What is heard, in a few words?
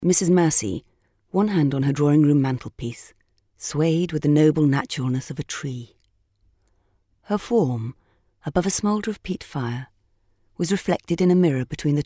english british female; dictor